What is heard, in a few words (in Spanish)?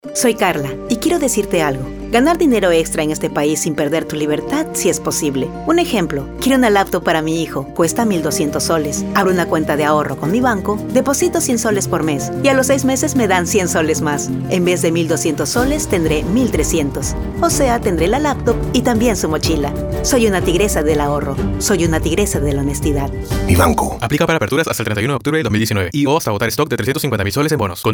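Radio, voice over; Mi banco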